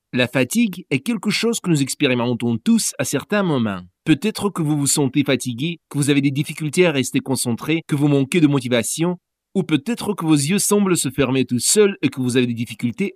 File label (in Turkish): Fransızca